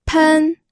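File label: "pen", Mandarin Chinese, first tone; pinyin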